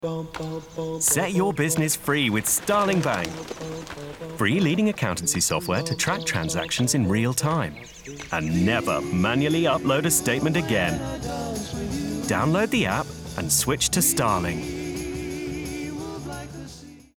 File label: Warm friendly open; Starling Bank